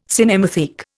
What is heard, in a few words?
"cinematheque", Indian